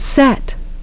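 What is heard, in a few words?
"sat", English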